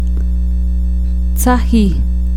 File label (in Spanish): "seco", ixil